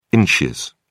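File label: "ins", British English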